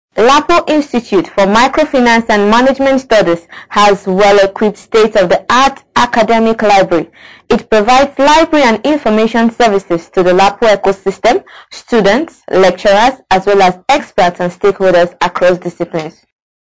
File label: library voice over